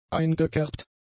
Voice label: "eingekerbt", German; adjectives